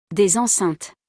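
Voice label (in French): "Des enceintes", French; vocabulaire; le lexique de l'informatique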